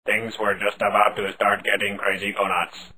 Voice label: crazy robot